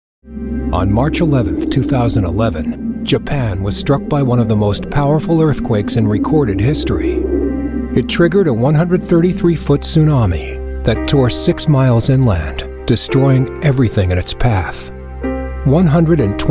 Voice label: Narration Demo

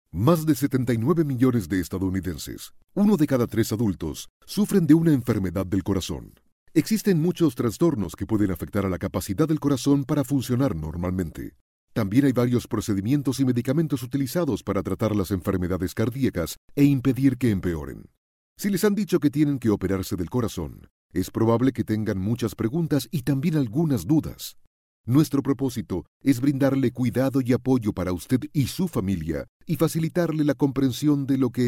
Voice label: documentary

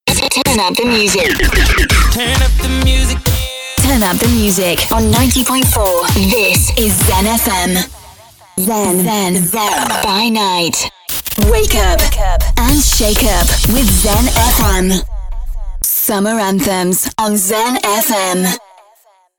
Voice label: Imaging

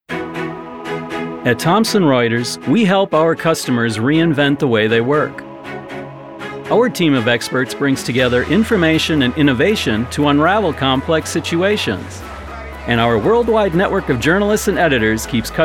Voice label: Narration

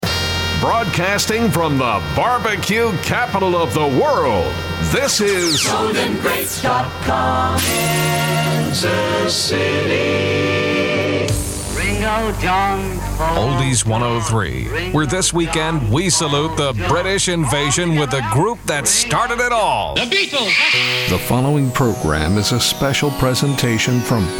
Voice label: Imaging & Promo

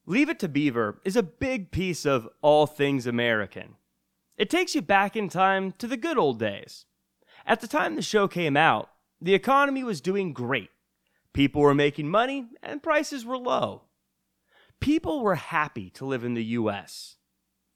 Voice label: Documentary

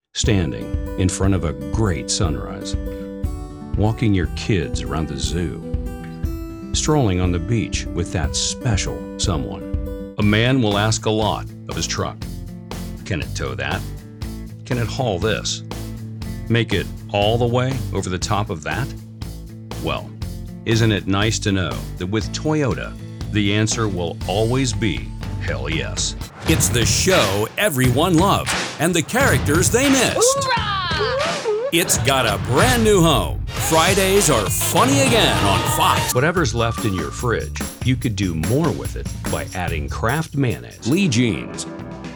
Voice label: commercial

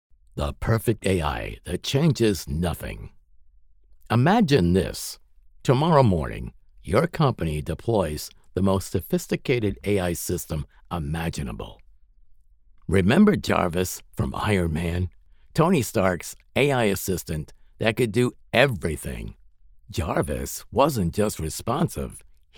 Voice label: AUDIOBOOK; THE PERFECT AI

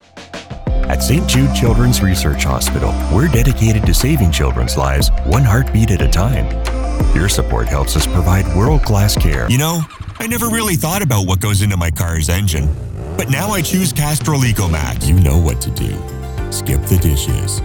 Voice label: Commercial Demo

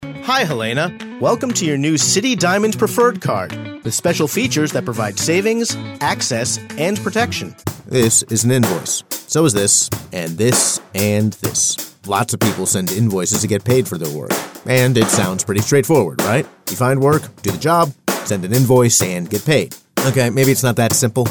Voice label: Explainer Video

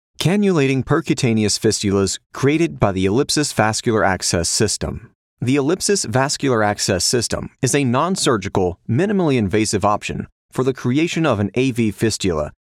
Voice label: medical procedure marketing video

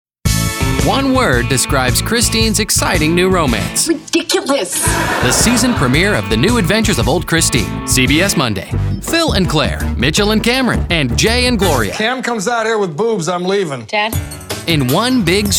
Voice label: Promo Demo

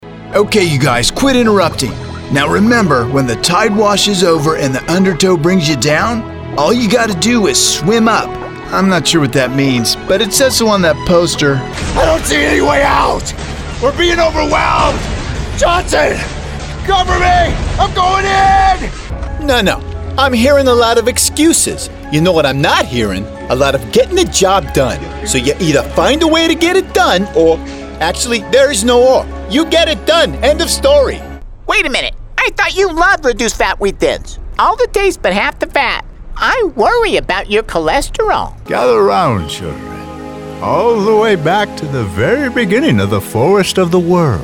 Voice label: Animation

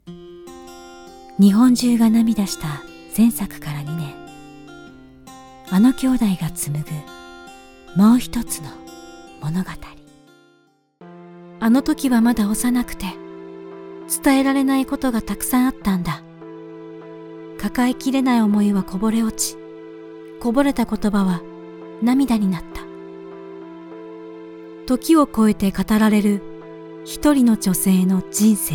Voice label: movie trailers

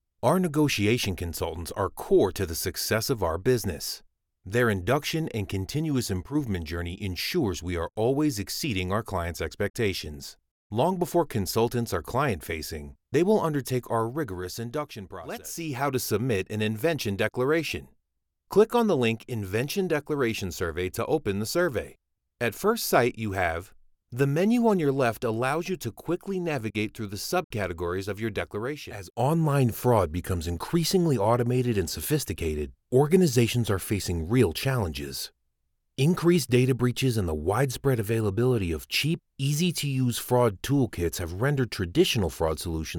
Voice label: Corporate

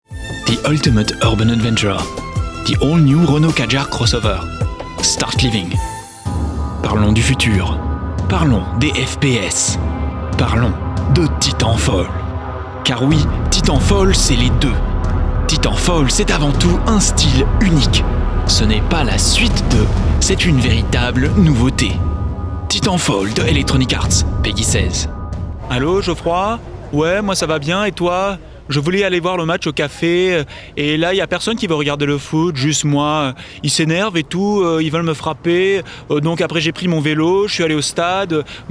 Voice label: FRENCH Commercial Compilation

